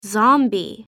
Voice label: "zombie", en; halloween